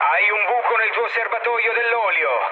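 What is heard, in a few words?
Italian damaged oil mood low, speech; War Thunder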